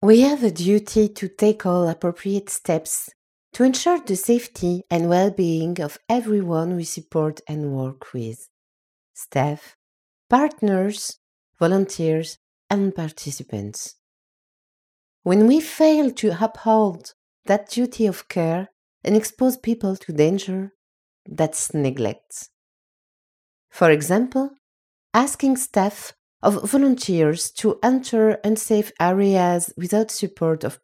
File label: E-learning NGO EN